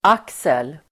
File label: "axel", Swedish